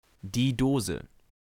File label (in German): "Die Dose", German